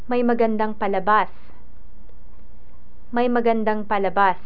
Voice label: "palabas", Tagalog

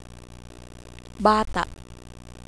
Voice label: "bata'", Tagalog, glot; Consonants; Phonology

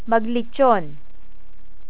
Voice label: "maglitson", Tagalog

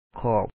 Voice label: "khO3Op", Lao; rim; ຂອບ

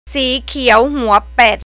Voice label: duck, Thai

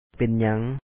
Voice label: "ເປັນຫຍັງ", Lao; pen n)a4N What happen?

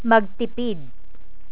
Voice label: "magtipid", Tagalog

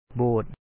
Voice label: "bo3ot", Lao; Buddhist chappel

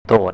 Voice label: "check", Thai

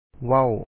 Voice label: "wa1w", Lao; to talk, to say; ເວົ້າ

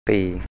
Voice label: "sì:" 'four', Thai

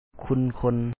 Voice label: ຄຸນຄົນ Khu2n kho2n, Lao

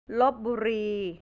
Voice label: lopburi, central Thai; ลพบุรี

